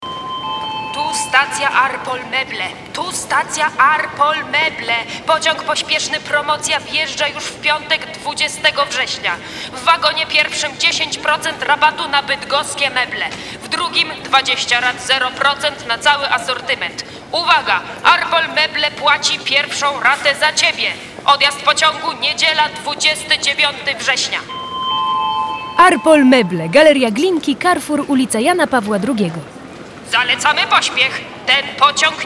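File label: Polish, Commercial; GoLocalise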